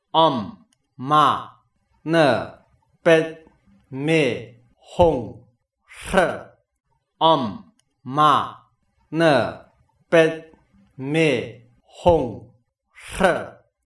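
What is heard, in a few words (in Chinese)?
六字大明咒（親誦）; 四臂觀音; 又稱觀世音菩薩心咒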